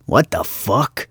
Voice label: guard male wondering; lucius ii the prophecy